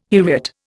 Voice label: "heriot", Indian